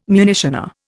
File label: "munitioner", Indian